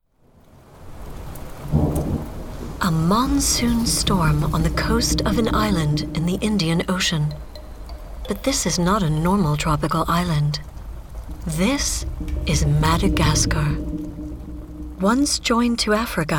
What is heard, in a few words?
DOCU; MADAGASCAR